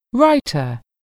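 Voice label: "writer", English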